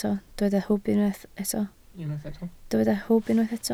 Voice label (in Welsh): "hwb", Welsh